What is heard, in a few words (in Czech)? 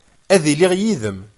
berberština